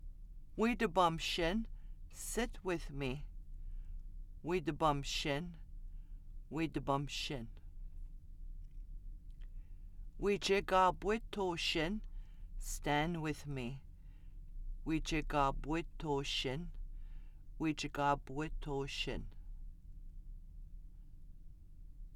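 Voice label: conversational commands singular, Anishinabe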